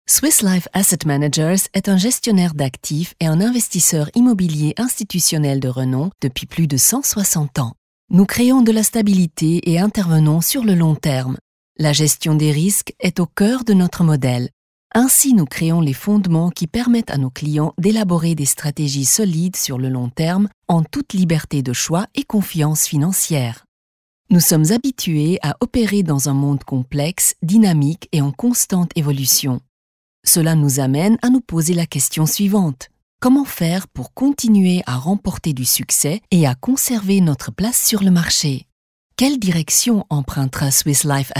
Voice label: FR; Swiss Live